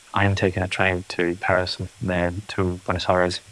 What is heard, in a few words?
Voice Acting, es